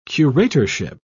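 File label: "curatorship", British English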